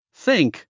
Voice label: "THINK", English; english sounds review quiz